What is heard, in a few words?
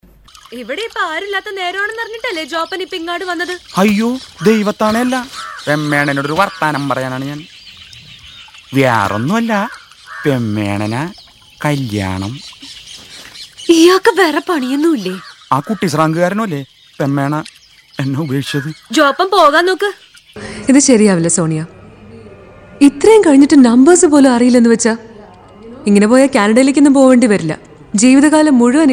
Dubbing